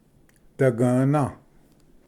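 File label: "dagvna", Cherokee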